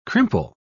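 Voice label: "crimple", British English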